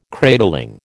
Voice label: "cradling", American

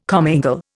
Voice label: "commingle", Indian

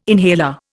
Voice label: "inhaler", Indian

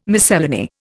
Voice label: "miscellany", Indian

